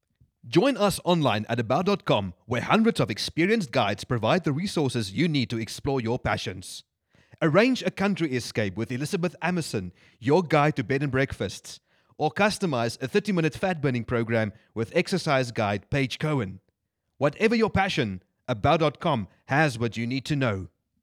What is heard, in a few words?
Hard Sell